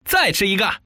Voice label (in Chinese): "chi", man; guizhou